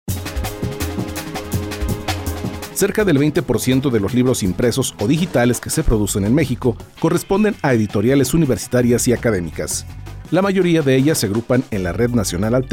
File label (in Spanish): SPOT